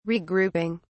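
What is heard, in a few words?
"regrouping", British English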